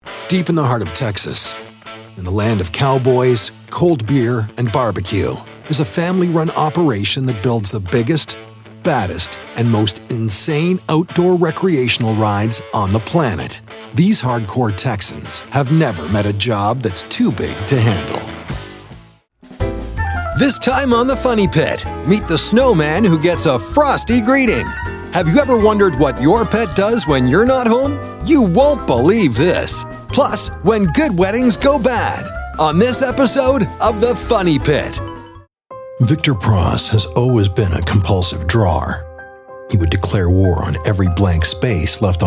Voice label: english canadian documentaries, canadian english voice actors